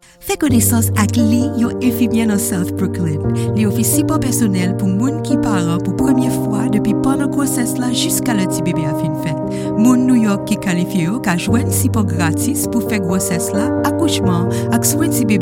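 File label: female voice, General, Haitian Creole; GoLocalise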